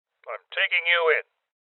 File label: Order To Surrender Male Old